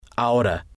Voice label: "ahora", spanish